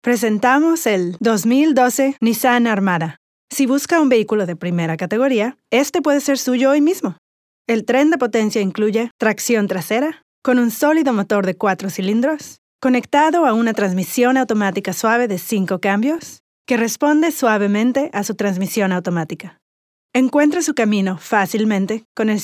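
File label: Spanish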